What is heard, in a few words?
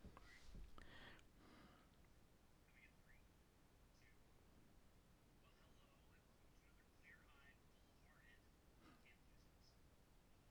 Zoom earbud audio test; audition